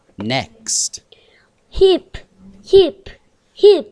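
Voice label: "hip", spoken word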